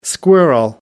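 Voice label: "squirrel", English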